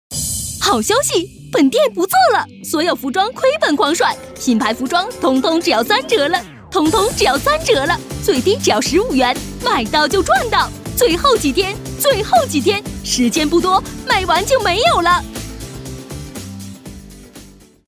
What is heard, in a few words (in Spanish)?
Locutor femenino chino